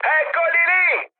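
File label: Italian enemy detected mood high, speech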